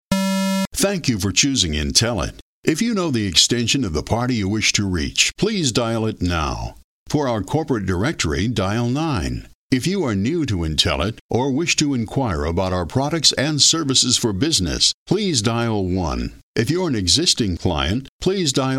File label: Telephone